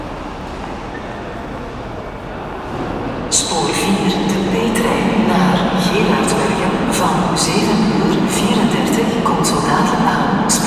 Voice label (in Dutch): Aankondiging